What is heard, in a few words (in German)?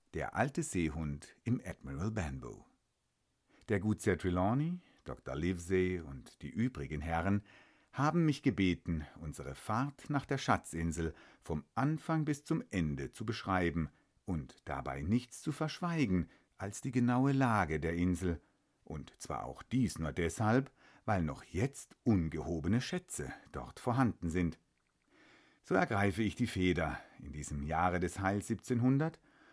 hoerbuch probe